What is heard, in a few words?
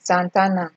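"santana", Nigerian Pidgin, female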